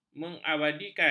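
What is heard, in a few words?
"mengabadikan", Malay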